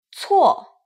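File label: "cuo", Mandarin pinyin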